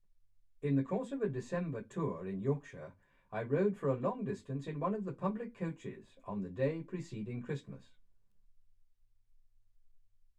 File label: male speech english